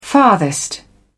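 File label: "farthest", British English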